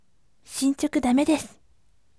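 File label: "shinchoku damedesu", gentle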